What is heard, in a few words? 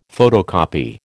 "photocopy", American